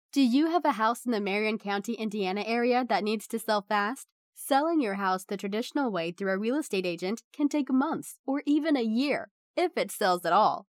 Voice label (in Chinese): 外籍英女 房产广告; 房产宣传片